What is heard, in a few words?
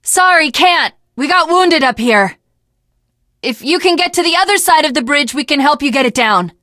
initial meeting, teen girl